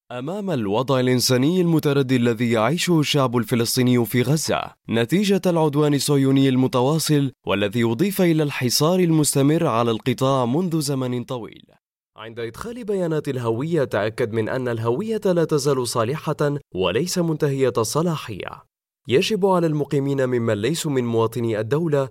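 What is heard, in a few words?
Arabic male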